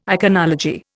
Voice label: "iconology", Indian

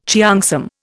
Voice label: "cheongsam", Indian

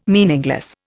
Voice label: "meaningless", Indian

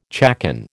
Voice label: "chaconne", American

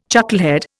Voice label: "chucklehead", Indian

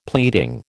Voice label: "pleading", American

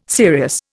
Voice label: "serious", Indian

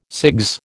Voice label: "CIGS", American

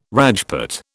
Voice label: "Rajput", British

